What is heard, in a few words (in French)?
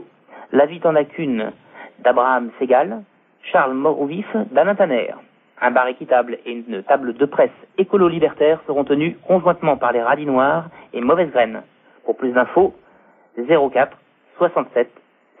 Danger travail repondeur